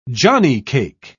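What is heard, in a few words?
"johnnycake", British English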